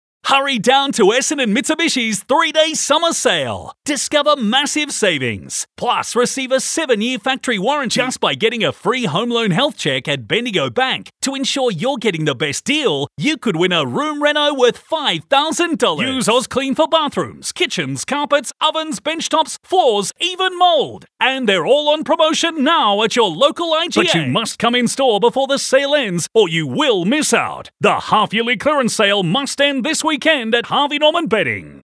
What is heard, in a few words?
Hard Sell